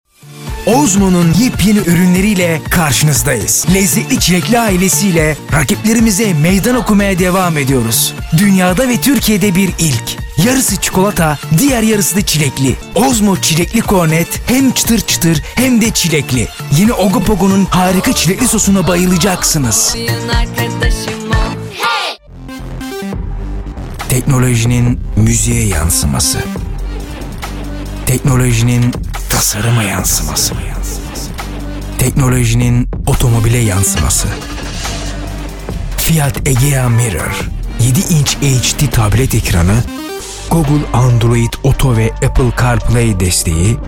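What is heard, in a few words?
Turkish, male, commercial; voice-over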